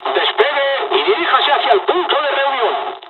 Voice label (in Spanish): takeoff, dialogs spanish; War Thunder